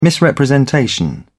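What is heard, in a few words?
"misrepresentation", British English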